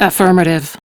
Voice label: Crew Accept, Female; Warframe; Railjack; Steel Meridian